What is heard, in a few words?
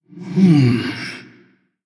Male Human Thinking; Anduin Death Knight